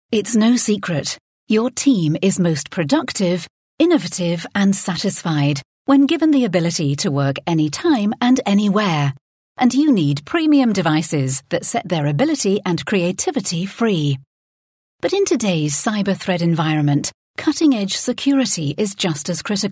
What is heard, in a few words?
corporate